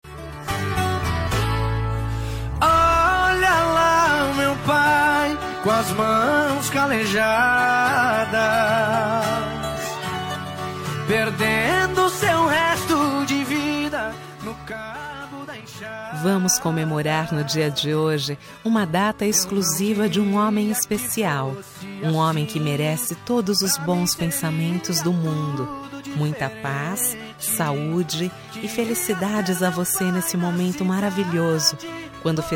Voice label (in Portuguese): fem, Voz Feminina; Dia dos Pais